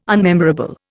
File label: "unmemorable", Indian